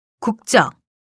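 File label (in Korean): "국적", Korean; TOPIK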